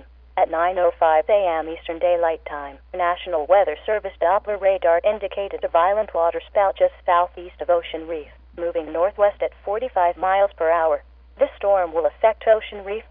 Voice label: sample tornado warning